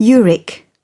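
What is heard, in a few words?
"uric", British English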